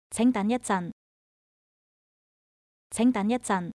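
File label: Please wait for a while, Chinese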